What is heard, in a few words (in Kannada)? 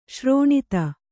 "ಸರೋನಿಧಿ", Kannada